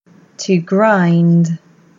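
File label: English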